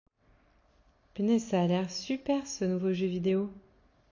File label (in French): sujet conversation neutre